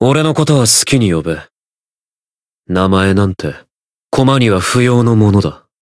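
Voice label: story, Japanese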